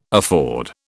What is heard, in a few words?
"afford", British